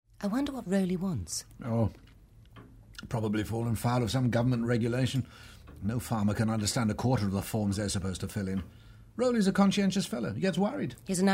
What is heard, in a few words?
drama, Radio 4 Classic Drama; Agatha Christie's "Taken at The Flood"; John Moffatt as Poirot; Andrew Sachs